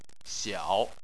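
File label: "xiao", Chinese, third tone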